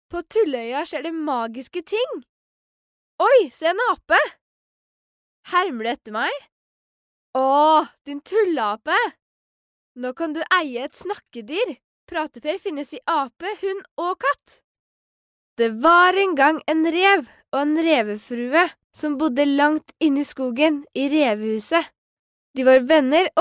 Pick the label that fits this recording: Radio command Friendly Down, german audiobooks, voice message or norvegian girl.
norvegian girl